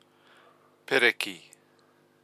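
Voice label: "pereki", Māori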